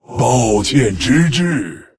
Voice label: Male Lich Sorry; zh